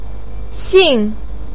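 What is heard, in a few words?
"xin4", Mandarin pinyin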